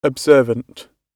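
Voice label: "observant", British English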